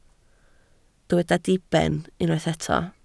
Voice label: "diben", Welsh